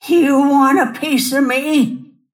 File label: attack pain; snapfire